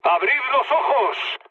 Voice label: player friendly fire, mood med, speech

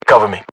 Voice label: uk order action cover me, battle chatter, English

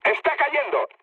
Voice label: aircraft destroyed mood med; War Thunder

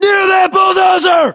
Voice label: landmark near bulldozer, english, us

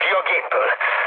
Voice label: bailout, speech hun; War Thunder

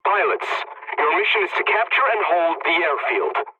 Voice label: mission start, dialogs english; War Thunder

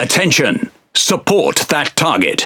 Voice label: Speech Quick Commands Ally Ship, EN GB; World Of Warships

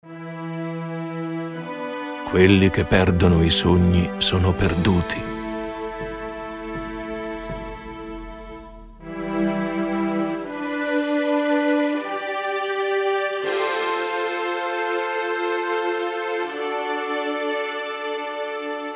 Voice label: Italian; Civ5; Wonder; Sydney Opera House

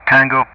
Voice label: "Tango", Speech ENG; Ka-50; Alphabet

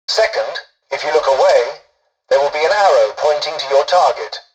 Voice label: tutorial dialogue, english; War Thunder

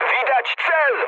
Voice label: near waypoint mood high, speech pol; War Thunder

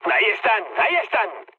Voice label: enemy detected, mood med, speech; War Thunder

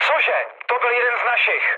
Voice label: player killed ally, speech cze, mood low; War Thunder

